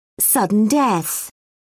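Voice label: "sudden death", British English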